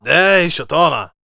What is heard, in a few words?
warrior conversation emotion excited